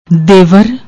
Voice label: "devar", Punjabi; ਦੇਵਰ